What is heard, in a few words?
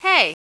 unhappy sad; opponents did well; Spades Deluxe 1.6